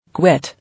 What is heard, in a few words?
"Quit", English